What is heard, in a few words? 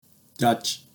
"Dachi" Japanese